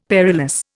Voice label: "perilous", Indian; Dictionary

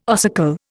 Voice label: "ossicle", Indian

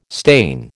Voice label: "stain", American

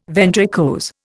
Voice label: "ventricose", Indian

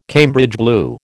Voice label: "Cambridge blue", American

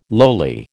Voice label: "lowly", American

